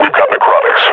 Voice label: announce enemy zombie, combine grunt